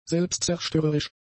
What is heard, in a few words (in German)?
"selbstzerstörerisch", German; adjectives